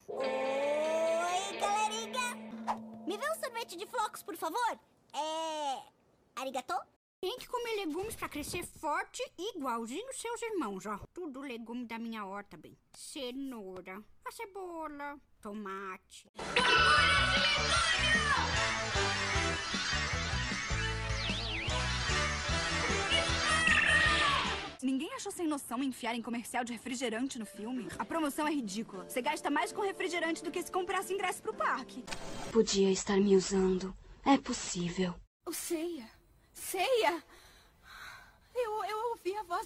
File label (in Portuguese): animação PTBR